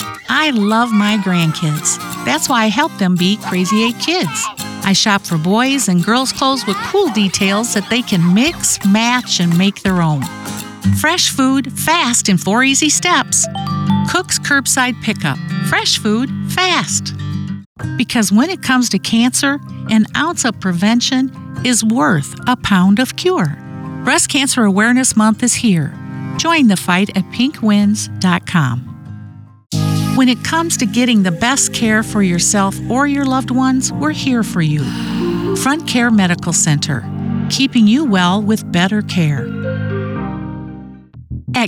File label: Commercial; Demo